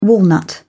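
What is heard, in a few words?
"walnut", English, British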